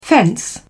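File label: "fence", English, British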